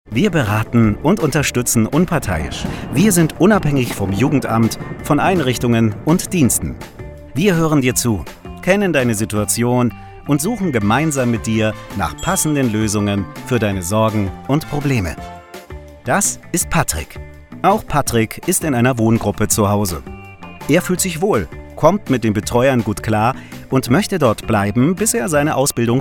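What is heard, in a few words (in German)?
Imagefilm vertrauensvoll freundlich für Jugendliche Ombudschaft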